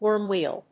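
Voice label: "worm wheel", English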